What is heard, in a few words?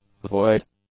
"void", English; adjectives